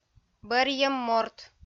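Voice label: "бӧрйӧм морт", Komi-Zyrian, female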